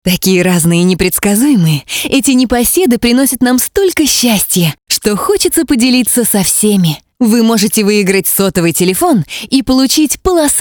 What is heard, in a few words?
Rus